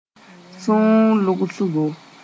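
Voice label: "sũur lʋgsgo", Mooré; pulse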